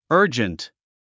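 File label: "urgent", English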